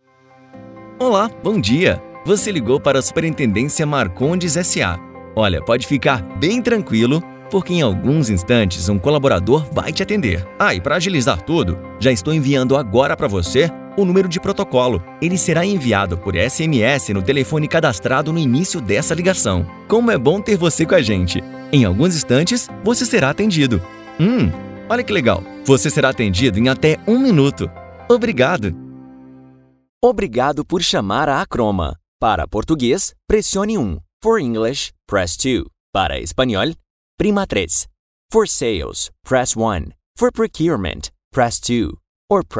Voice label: URA ESPERA TELEFONICA; ACHROMA

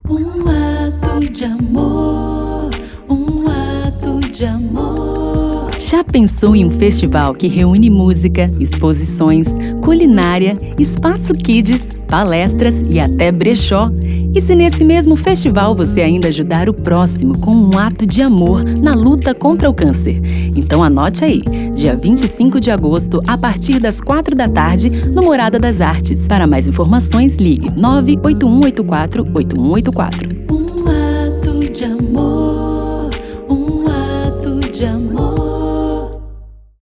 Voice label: loc e jingle; Um Ato de Amor

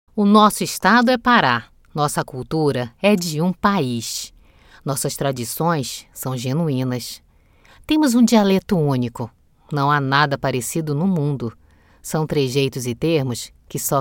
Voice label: Nativa Padrao

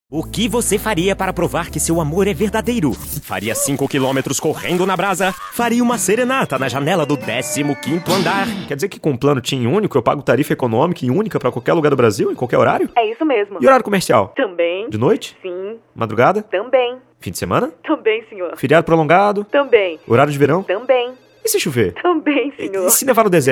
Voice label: Repertório Original Personagem e Caricato

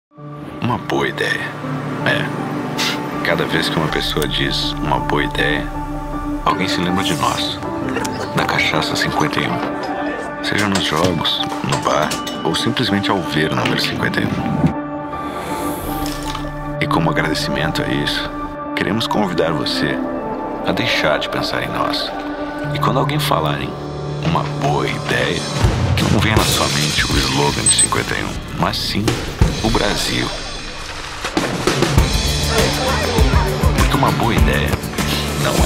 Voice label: Grave Cool

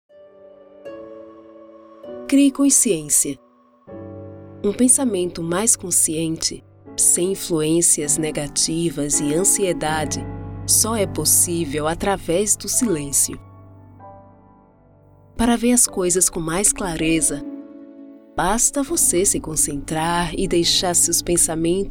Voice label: Motivacional

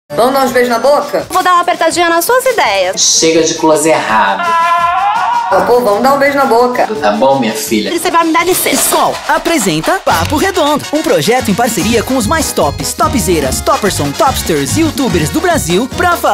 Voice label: Locução; Skol

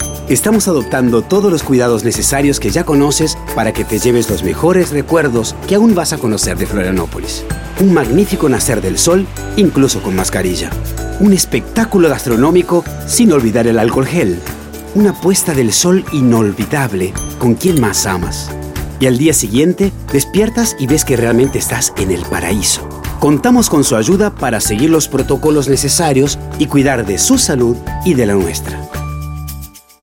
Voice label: Espanhol; PMF Protocolos Retomada

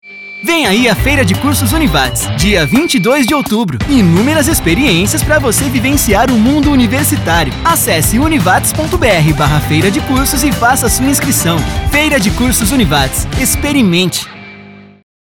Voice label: Locução; Univates

